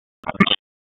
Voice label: Police Downtown District Tac 1, p25; Raleigh; wake